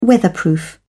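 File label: "weatherproof", British English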